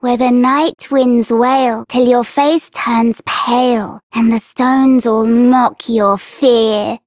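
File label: Child Rhymes Fear Child Female; Duviri; Childrens Rhymes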